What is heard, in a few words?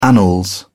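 "annals", British English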